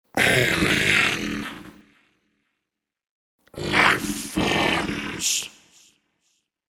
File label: Alien Lifeforms Spoken, The words ‘Alien lifeforms’ spoken in alien voice.; Aliens